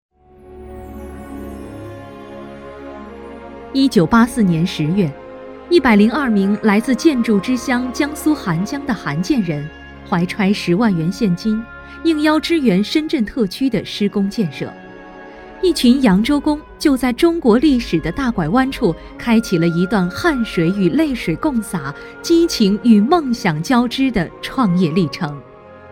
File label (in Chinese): 女 专题 大气稳重; 华建四处